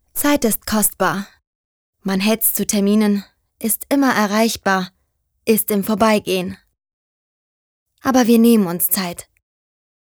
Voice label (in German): Sanft; Old MacKanzie